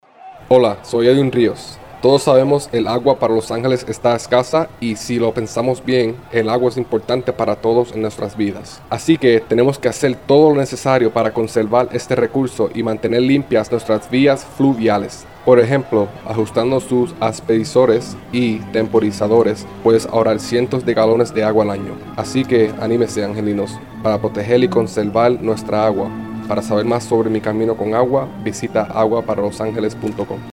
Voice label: SPANISH